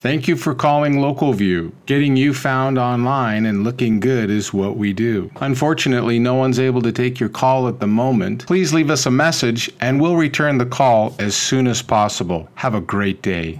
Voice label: voicemail greeting; localview